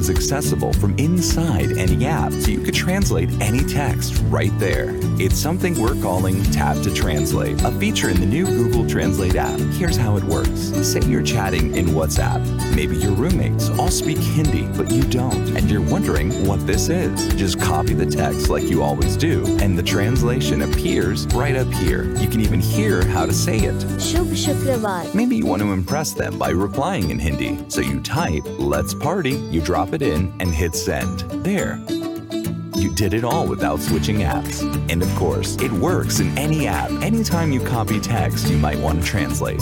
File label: English voice over product promotion